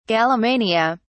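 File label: "gallomania", British English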